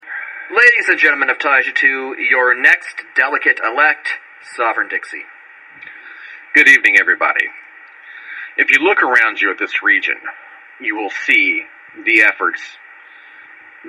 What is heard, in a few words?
Radio Voice